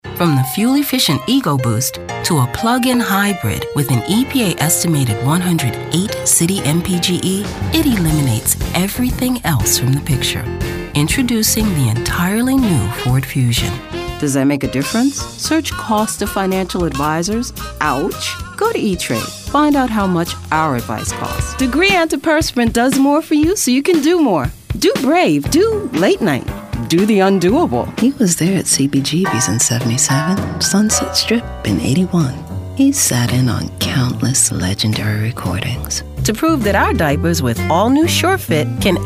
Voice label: Commercial